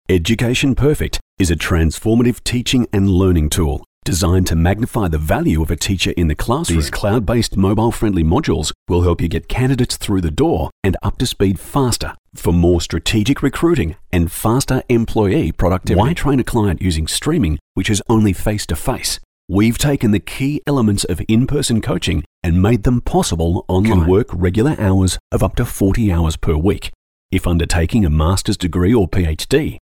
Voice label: Corporate